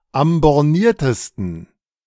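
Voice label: "am borniertesten", German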